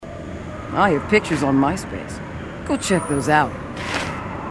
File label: female marine